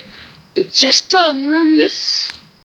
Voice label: a hypeman rapper saying